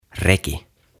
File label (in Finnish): "Reki" Sleigh